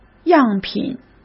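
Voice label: "样品", Mandarin Chinese; hsk; chinese-flashcards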